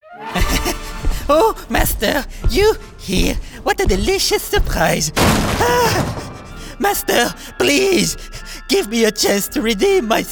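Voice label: Character Cartoon, English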